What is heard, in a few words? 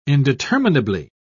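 "indeterminably", British English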